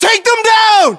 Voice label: order attack infantry, US

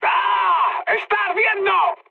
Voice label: on fire, mood high, speech; War Thunder

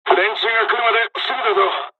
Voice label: dialog, dialogs japanese; War Thunder

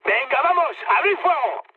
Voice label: attack position, mood high, speech; War Thunder

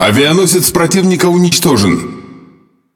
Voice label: Speech Destroy Enemy Aircarrier, RU; World Of Warships

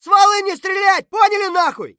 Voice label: silence; Escape from Tarkov; scav3

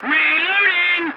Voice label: voice message reloading, english; War Thunder

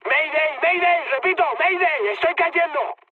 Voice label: aircraft destroyed mood high; speech; War Thunder

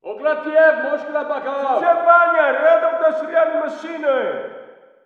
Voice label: walla rus mountain battle

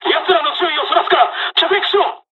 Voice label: dialogs japanese; War Thunder

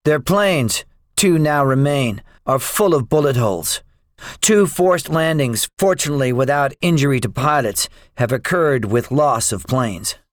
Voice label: debriefing, english; War Thunder

